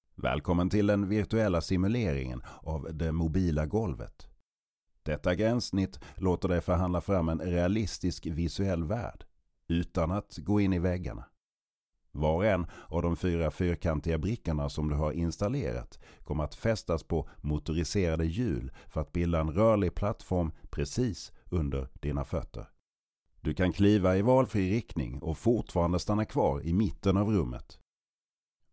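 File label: Swedish male E-Learning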